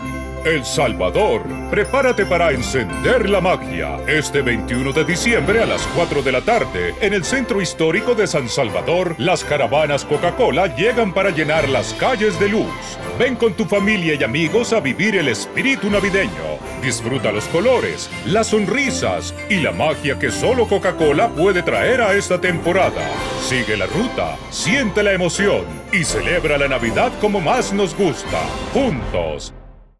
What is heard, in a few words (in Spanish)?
locución adulto mayor